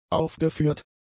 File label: "aufgeführt", German; adjectives